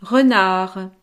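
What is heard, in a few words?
"renard", French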